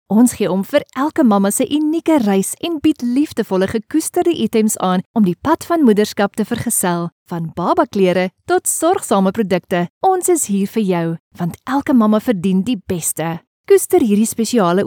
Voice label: afrikaans promos; Synchronsprecher aus Südafrika